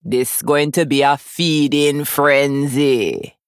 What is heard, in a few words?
Female Troll Threaten